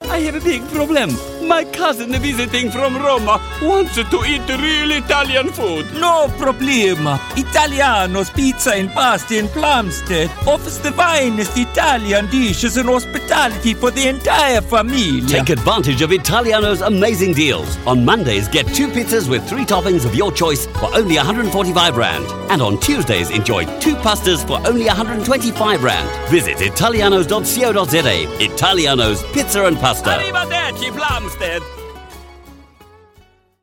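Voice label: English South Africa Characters; GoLocalise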